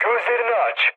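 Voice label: Turkish player friendly fire, mood med, speech; War Thunder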